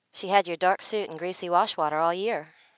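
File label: speech female clean; dereverb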